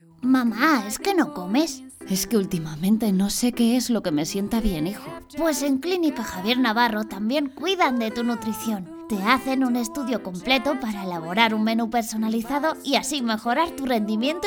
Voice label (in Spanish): cuña con música; Nutricion